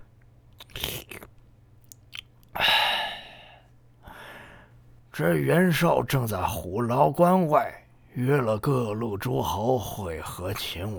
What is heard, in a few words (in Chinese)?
古稀